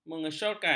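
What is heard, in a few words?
"mengesyorkan", Malay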